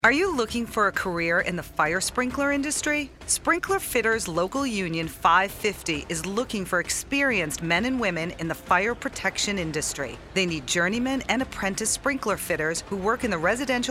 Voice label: Open House Female Voice